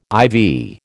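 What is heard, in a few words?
"ivy", American English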